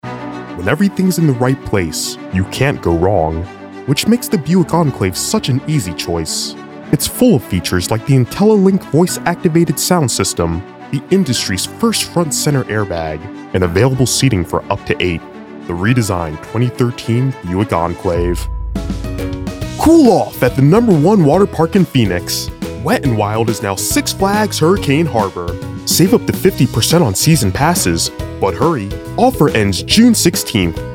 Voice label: Commercial Demo; Voiceover